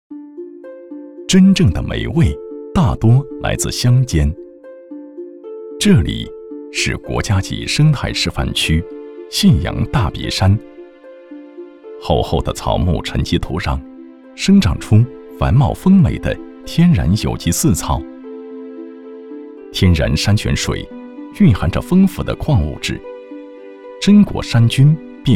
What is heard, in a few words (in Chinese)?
男国 纪录片; 大别山土黑猪; 舌尖